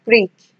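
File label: "prick", Nigerian Pidgin, female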